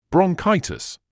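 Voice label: "bronchitis", British English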